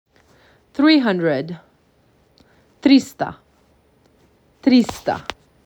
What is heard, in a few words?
MAC 6, macedonian phrasebook